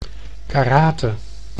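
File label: "karate", Dutch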